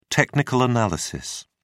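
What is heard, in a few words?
"technical analysis", British English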